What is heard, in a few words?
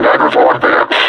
announce attack, combine suppressor; alyx; Half Life Alyx